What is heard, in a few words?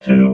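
"two", robot voice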